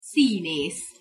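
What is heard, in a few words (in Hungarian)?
"szinesz" Hungarian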